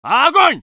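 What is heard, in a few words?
voice soviet infantry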